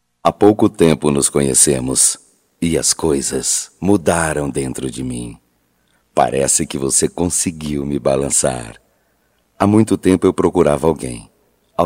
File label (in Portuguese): Romântica Masculino